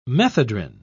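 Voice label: "methedrine", English, British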